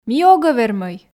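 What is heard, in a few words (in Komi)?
ми огӧ вермӧй, Komi, female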